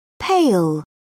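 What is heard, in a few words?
"pail", British English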